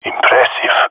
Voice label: compliment, jungle male epic; Counter Strike Model Pack; CS:GO